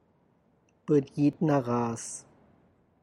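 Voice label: Tlacochahuaya Zapotec; black hen; Tlacochahuaya Zapotec Talking Dictionary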